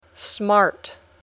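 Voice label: "smart", English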